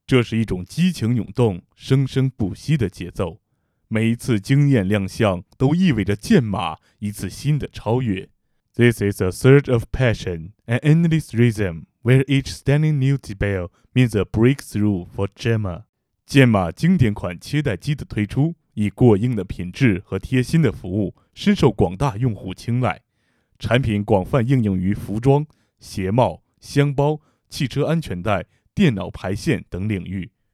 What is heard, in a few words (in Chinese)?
中英双语品牌介绍